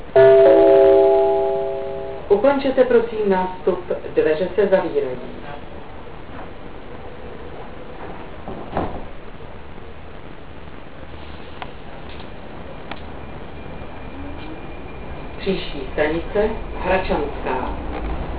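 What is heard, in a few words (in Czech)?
odjezd, Vlaková hlášení, Pražské metro; Ukončete, prosím, nástup, dveře se zavírají. Příští stanice Hradčanská.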